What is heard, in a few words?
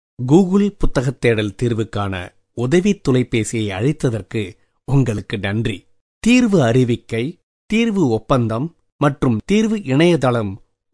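Tagalog, General; GoLocalise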